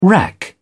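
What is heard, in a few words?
"rack", British English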